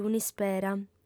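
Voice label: "un'ispera", lingua sarda, female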